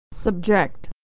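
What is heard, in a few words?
"to subject", American English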